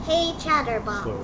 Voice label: hey chatterbox, en us